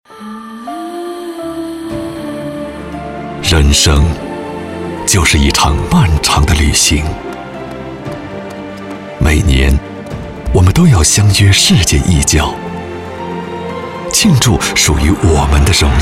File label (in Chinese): 男, 专题; 皇家海洋量子号